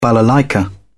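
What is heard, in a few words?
"balalaika", British English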